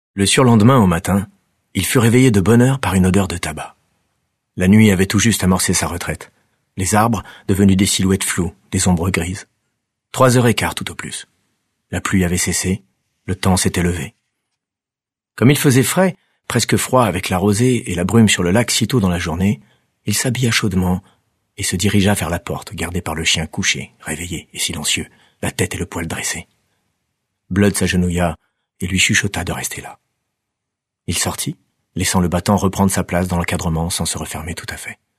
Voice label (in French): Narration